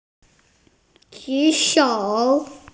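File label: "ju3shou3", Mandarin Chinese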